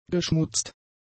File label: "geschmutzt", German; adjectives